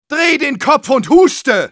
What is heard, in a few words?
Medic taunts, de